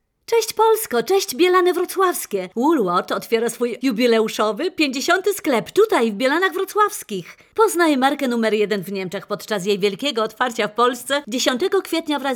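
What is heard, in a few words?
Polish female commercial radio spot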